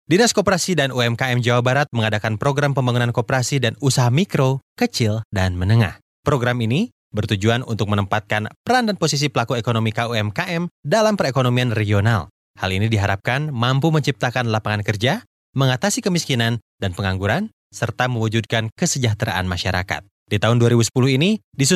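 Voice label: Indonesian, male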